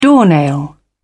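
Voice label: "doornail", British English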